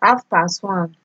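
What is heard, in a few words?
"halfpasone", Nigerian Pidgin, female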